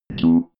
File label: robot voice two